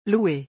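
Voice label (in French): "louer", French; vocabulaire; le lexique des vacances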